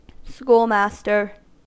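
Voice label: "schoolmaster", US English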